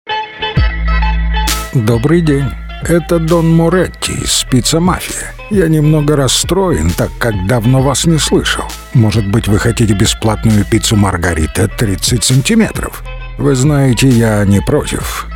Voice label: ivr; pizza mafia